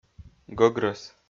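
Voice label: "гӧгрӧс", Komi, male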